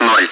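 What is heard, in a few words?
Speech, Russian, air traffic control; Digits; DCS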